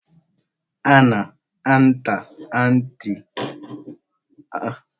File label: voice message